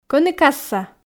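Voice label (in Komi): Komi, кӧні касса, female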